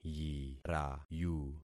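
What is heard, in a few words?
"yirayu", concatenated syllables; Affixing experiment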